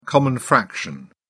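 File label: "common fraction", British English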